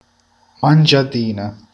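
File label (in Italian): "prurito", Italian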